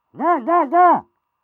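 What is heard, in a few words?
go, French; Pyro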